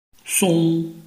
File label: "song1", Chinese